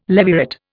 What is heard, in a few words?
"levirate", Indian